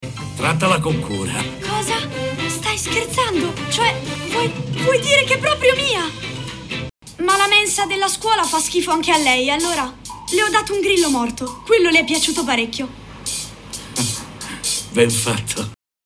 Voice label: voce parlata bambino 10 anni film cinema

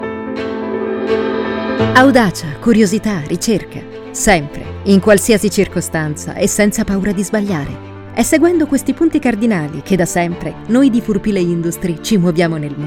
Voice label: istituzionale

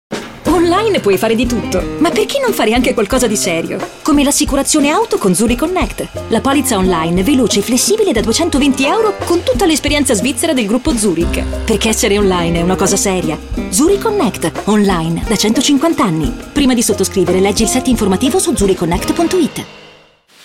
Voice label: pubblicita

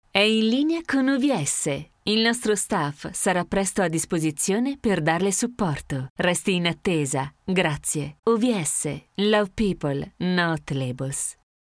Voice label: segreteria ita

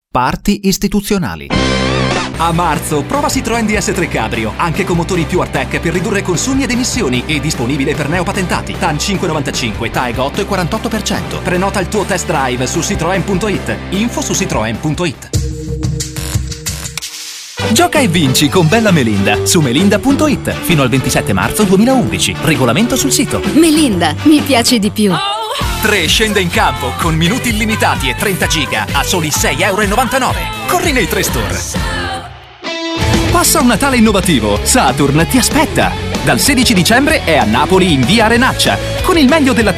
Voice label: spot medley short

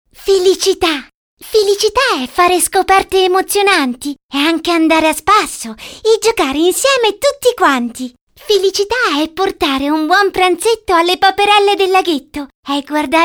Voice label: bambini cartoon

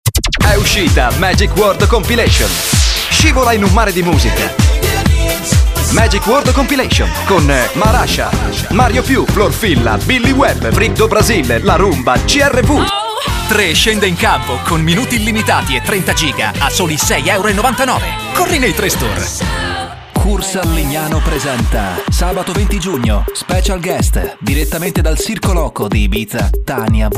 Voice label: deciso

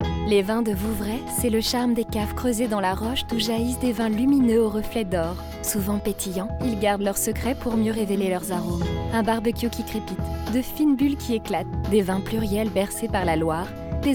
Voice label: spot; ospirit; vouvray

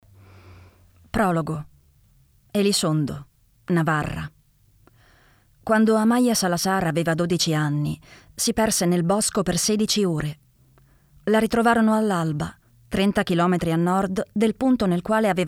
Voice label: provino audiolibro

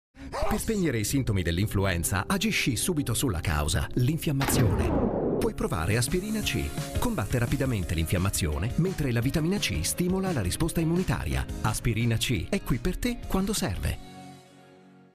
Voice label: spot; aspirina c

